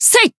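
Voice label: Voice attack, Japanese; milla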